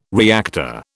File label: "reactor", British